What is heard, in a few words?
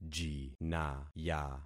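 "jinaya", concatenated syllables; Affixing experiment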